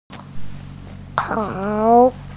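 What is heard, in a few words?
"kao3", Chinese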